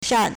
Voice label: "shan4", Chinese